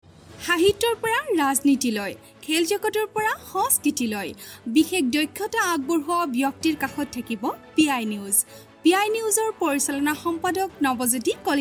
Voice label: news audio

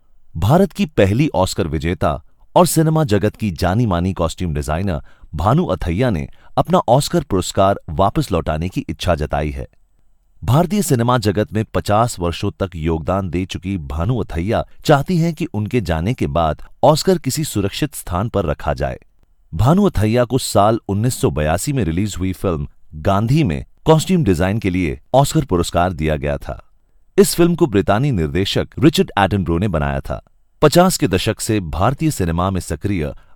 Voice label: narration hindi

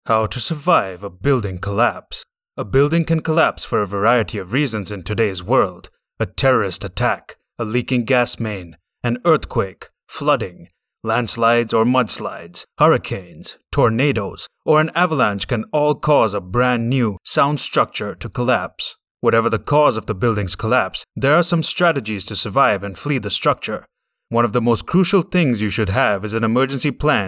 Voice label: Youtube english; ENGLISH Neutral

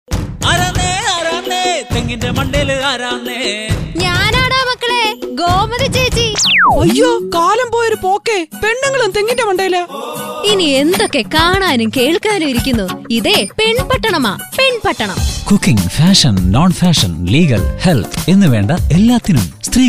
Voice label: PROMO malayalam

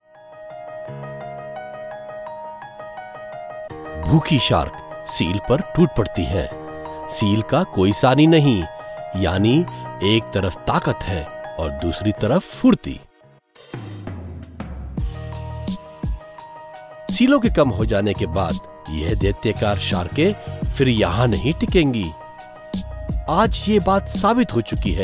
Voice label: narration discovery hindi

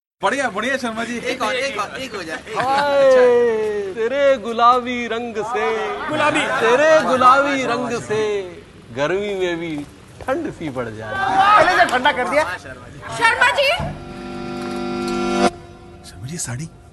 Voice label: TVC

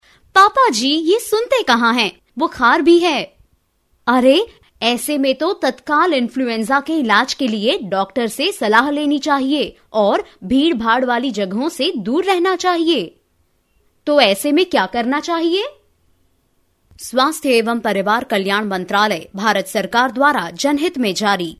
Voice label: Female

